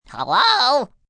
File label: "Hello" Cartoon, Greetings, male; VoiceBox